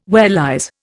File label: "wellies", Indian